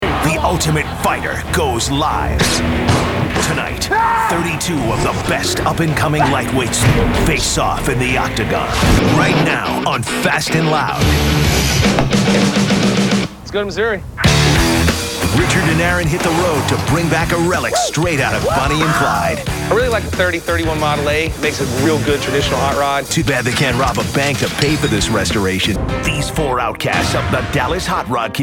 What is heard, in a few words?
narration men shows